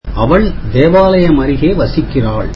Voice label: tamil she lives near the church

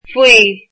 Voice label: under, irish

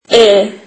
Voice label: turkish alphabet e

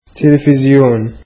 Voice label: arabic television

